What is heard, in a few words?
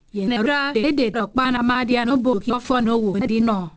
ibibio